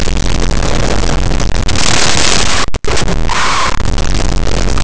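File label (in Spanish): "mariscos", Spanish; Laboratori de fonetica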